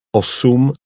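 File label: "osum", Czech, male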